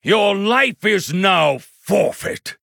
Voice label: Male Human Threaten